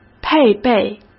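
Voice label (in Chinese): "配备", Mandarin Chinese; hsk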